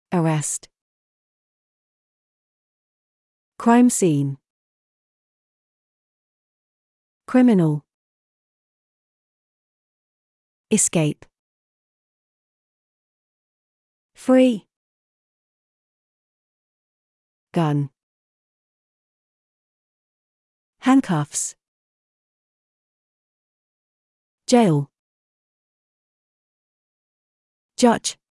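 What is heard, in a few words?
British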